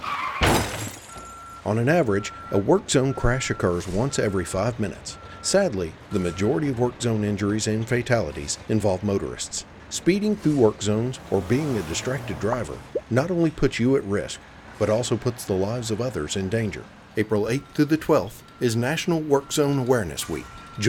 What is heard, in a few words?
Radio Spot